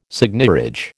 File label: "seigniorage", American